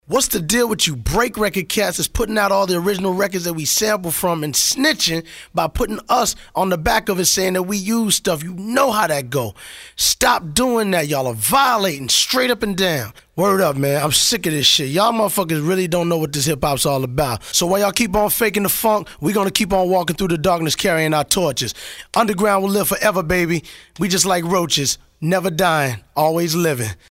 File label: COMPLAIN